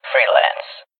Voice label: "freelance", fox male

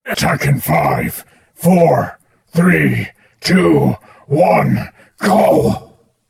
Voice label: "attack in five", soldier

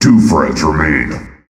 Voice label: 2 frags left, announcer

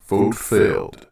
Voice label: vote fail, announcer

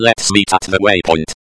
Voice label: meet, espeak

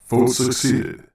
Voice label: vote accept, announcer

